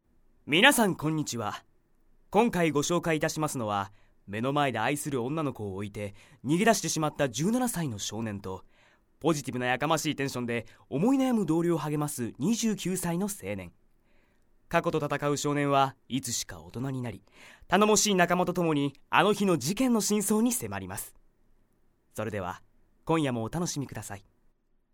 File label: narration